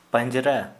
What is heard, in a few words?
Santali rib